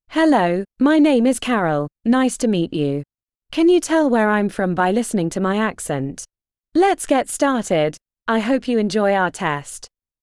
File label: British, english accents; english accents challenge